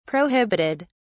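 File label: "prohibited", British English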